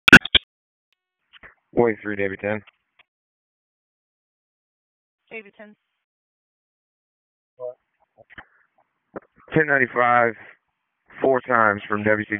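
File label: Sheriff's Office Dispatch; WSO DISP 3; wake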